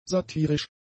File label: "satirisch", german; adjectives